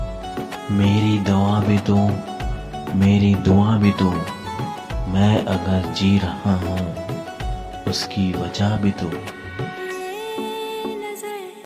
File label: Love Shayari Ringtone